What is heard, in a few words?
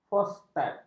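"fosfat", Malay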